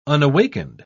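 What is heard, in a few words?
"unawakened", British English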